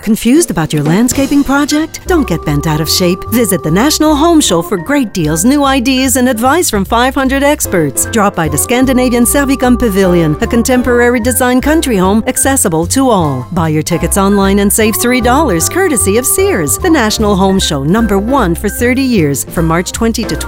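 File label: Canadian English, female, commercial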